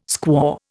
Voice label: "squaw", Indian